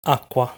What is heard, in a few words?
"Acqua", Italian; lesson 6 articles plural